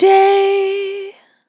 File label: "Long Day", Vocal Samples, Words